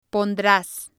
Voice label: "pondras", Spanish